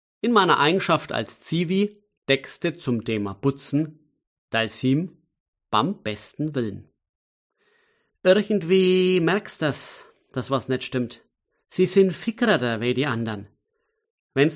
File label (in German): Mundart